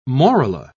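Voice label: "morula", British English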